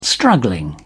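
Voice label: "struggling", British English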